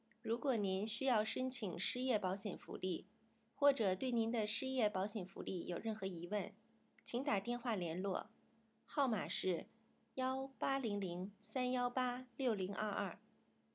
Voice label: chinese